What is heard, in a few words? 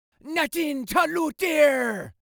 Voices Jamaican Nothing To Loot There